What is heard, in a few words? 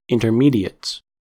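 "intermediates", US English; pronunciations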